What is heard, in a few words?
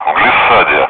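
Speech, Russian, air traffic control; DCS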